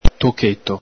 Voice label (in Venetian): "tocheto", Veneto; ITA: pezzetto; el Galepin